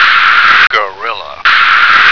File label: "gorilla", American